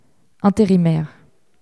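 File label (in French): "intérimaire", French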